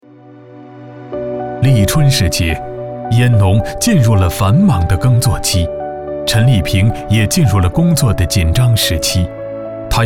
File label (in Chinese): 男 专题 人物; 烟草公司